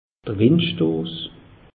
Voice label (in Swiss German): "windstoss", alsace; meteo